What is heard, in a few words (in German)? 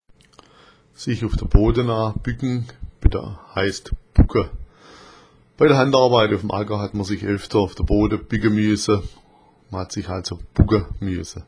bucka, schwaebisch